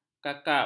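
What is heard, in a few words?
"kakap", Malay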